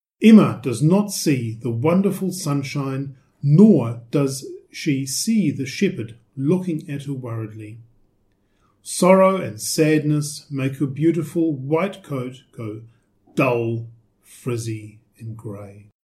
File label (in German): englisch